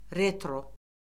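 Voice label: "retro", Azerbaijani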